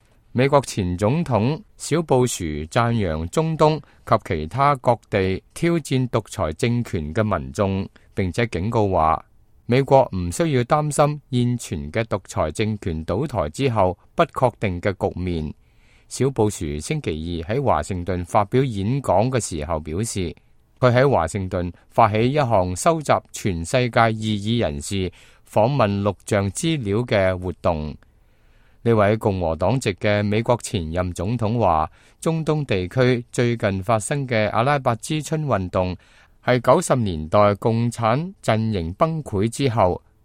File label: cantonese, news